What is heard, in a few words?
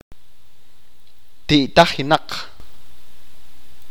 Suffering from evil eye, achi